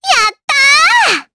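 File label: Vox Happy Japanese; Estelle; kingsraid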